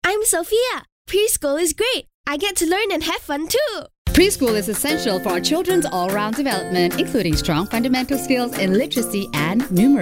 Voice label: eng